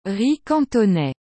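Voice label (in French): "riz cantonais", French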